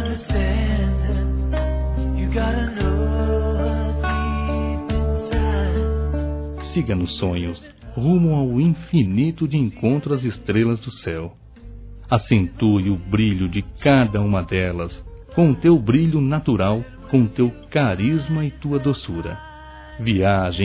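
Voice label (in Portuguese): boa noite amor Masculino, Telemensagens; Dia Dos namorados; Telemensagens 2017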